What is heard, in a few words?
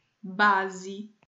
"base", portuguese